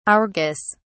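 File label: "argus", British English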